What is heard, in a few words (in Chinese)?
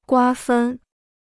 "瓜分", Chinese